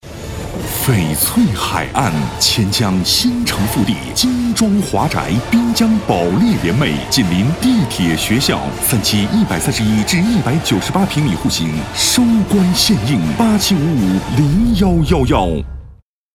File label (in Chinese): 广告 地产 地产广告 激情, 男